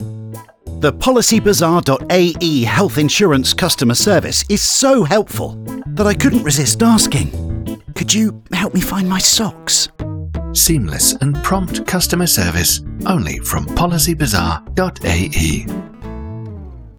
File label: ENG mix MVO, radio spot; PB Health spot